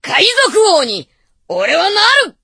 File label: voice, dubbing